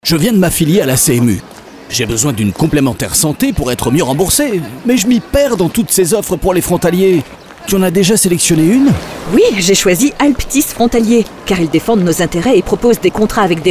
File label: Spot radio; Alptis